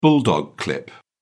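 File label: "bulldog clip", British English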